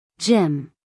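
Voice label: "gym", American English, female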